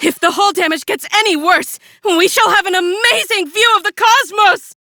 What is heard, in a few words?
Female; Warframe; Railjack; Cephalon Suda